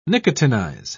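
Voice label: "nicotinize", British English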